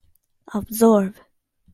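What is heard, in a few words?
"absorb", Japanese, female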